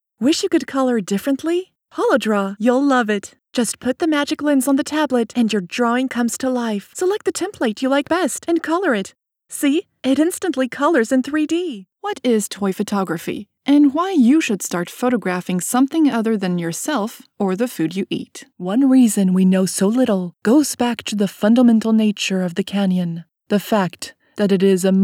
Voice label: promo eng